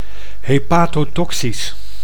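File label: "hepatotoxisch", Dutch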